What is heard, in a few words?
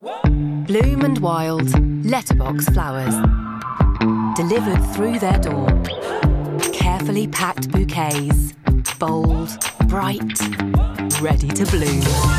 voice commercial